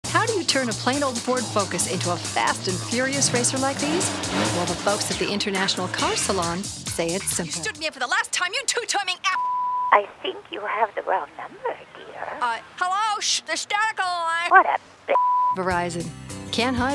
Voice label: voiceovers